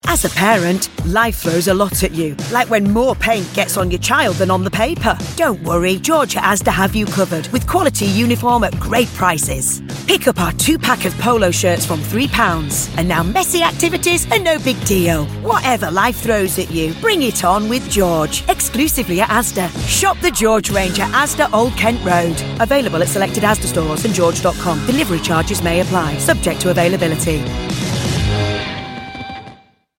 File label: commercial